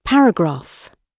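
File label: "paragraph", British English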